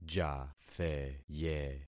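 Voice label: "jatheye", concatenated syllables; Affixing experiment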